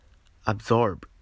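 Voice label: "absorb", Japanese, male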